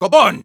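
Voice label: negative vocalization, Spanish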